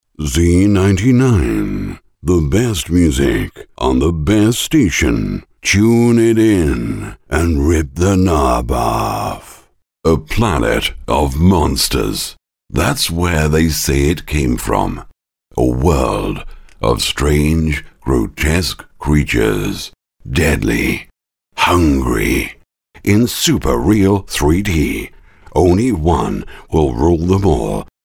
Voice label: movie trailer